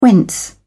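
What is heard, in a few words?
"whence", British English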